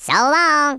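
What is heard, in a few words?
"bye bye", Redneck, male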